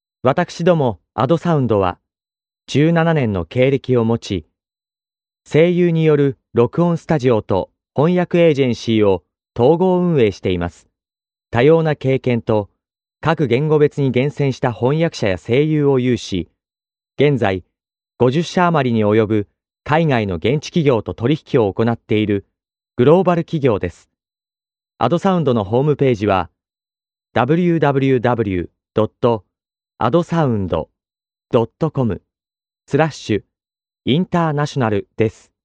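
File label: Japanese, male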